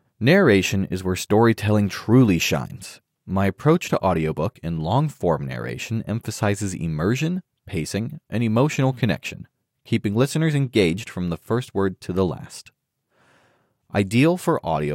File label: Narrative